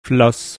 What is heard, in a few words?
"flos", Latin